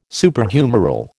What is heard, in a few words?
"Superhumeral", American